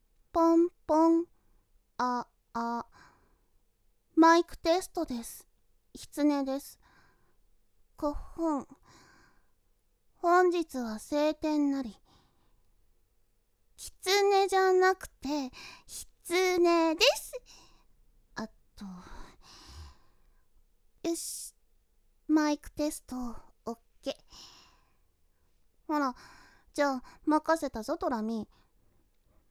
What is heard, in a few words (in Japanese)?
ASMR, 熊本開幕宣言